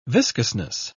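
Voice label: "viscousness", English, British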